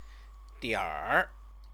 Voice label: "dianr", Chinese; 点 儿; some; number